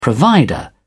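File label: "provider", British English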